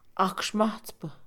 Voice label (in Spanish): Mixe, Mujer; pescador; INALI